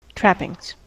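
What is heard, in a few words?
"trappings", American English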